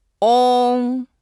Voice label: "ong", Chinese